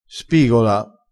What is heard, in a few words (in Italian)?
"spigola", crespinese